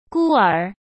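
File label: "孤儿", Mandarin Chinese; chinese flashcards; hsk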